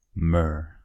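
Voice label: "myrrh", English, American accent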